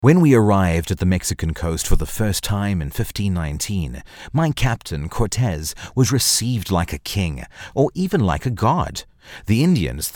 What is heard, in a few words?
Natural Conversational Storytelling